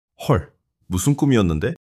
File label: fluster